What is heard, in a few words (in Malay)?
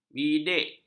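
"bidik", Malay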